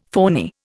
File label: "phoney", Indian; Dictionary